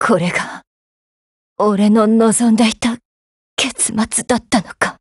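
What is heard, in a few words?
DEAD Japanese, Voice; GF Alarm; Excutioner buhuo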